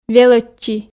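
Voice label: "велӧдчи", Komi, female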